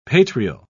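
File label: "patrial", British English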